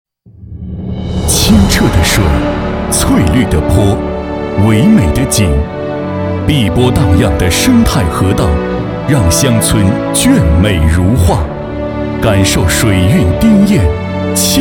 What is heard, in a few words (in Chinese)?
男 专题 绿水青山